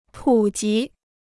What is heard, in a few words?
"普及", Chinese